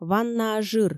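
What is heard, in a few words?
"ваннаа жыр", Komi, female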